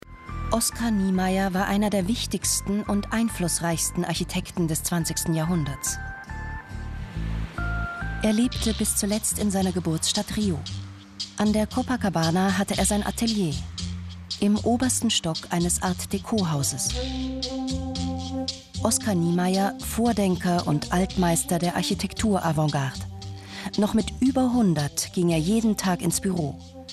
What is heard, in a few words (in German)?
TV Kommentar; TV Oscar Niemeyer Kommentar